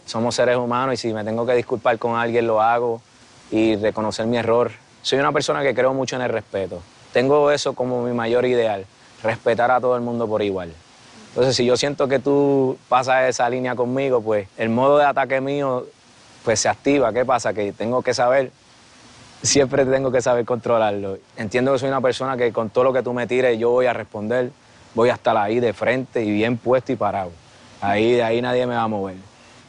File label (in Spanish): dialecto caribeno